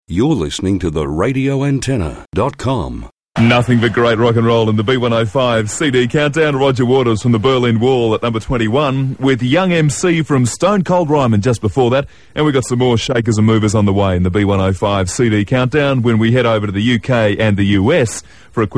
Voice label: promo; Aircheck; B105 FM; 1990

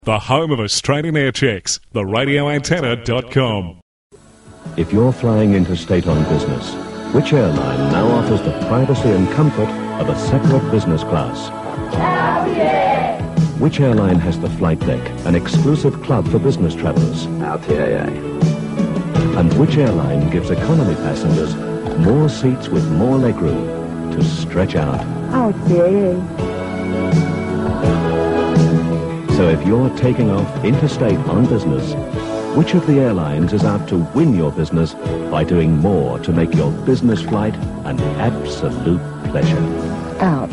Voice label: commercial; Aircheck; TAA; 1980s

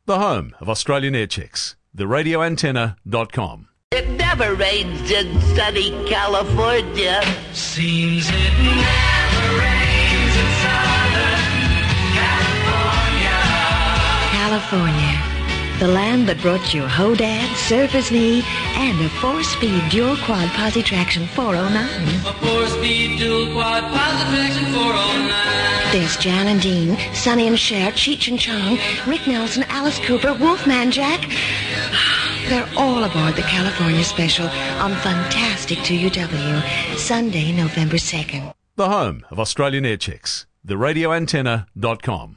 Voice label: Promo; 2UW; 1975

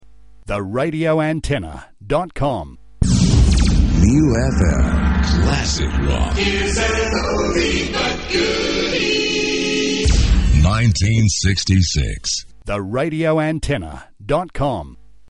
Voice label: Classic Rock sweeper; Aircheck; NEW FM; 1990

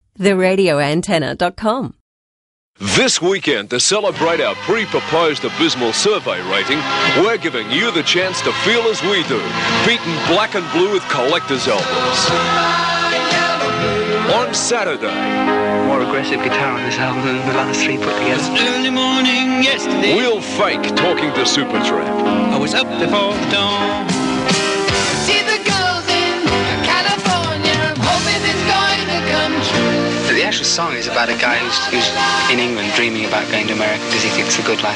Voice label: Joke Promo; Aircheck; 4IP; 1979

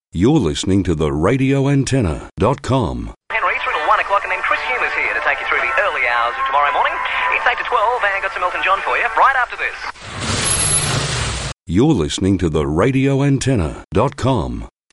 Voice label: unknown announcer; Aircheck

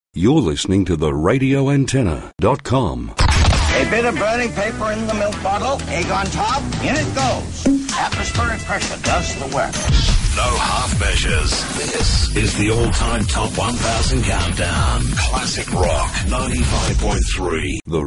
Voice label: Aircheck Classic Rock FM sweeper; Top 1000

